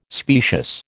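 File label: "specious", American English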